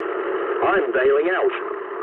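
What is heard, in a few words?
English bailout mood low; War Thunder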